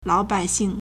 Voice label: "lao3bai3xing4", Chinese

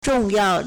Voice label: "zhong4 yao4", Chinese

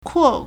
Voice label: "kuo4", Chinese

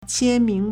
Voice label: "qian1 ming2", Chinese

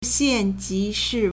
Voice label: "xian4ji2shi4", Chinese

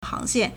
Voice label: "hang2xian4", Chinese

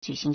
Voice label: "ju3xing2", Chinese

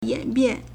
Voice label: "yan3bian4", Chinese

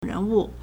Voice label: "ren2 wu4", Chinese

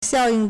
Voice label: "xiao4ying4", Chinese

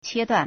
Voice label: "qie1 duan4", Chinese

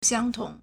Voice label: "xiang1tong2", Chinese

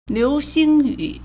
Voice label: "liu2xing1yu3", Chinese; 流星雨; liúxīng yǔ; meteor shower

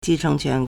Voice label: "ji4 cheng2 quan2", Chinese

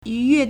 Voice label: "yu2yue4", Chinese

